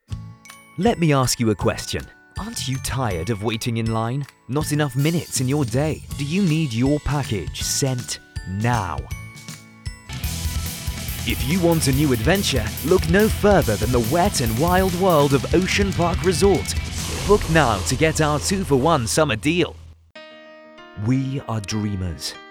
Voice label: Commercial UK